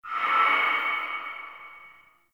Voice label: Announcement End Verb; Lustratus; Interrogation